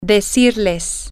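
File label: to tell say you them, Spanish, female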